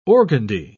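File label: "organdie", British English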